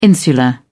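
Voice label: "insular", British English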